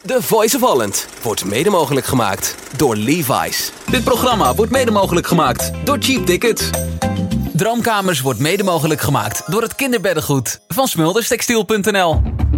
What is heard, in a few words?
dutch voiceover system announcements